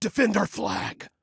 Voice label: Defend Flag, Male